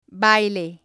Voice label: "baile", Spanish

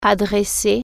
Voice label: direct, french

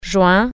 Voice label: june, french

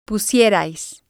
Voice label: "pusierais", Spanish

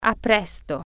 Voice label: see you soon, italian

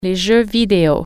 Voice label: video games, french

